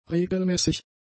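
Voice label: "regelmäßig", German; adjectives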